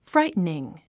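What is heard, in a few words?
"frightening", English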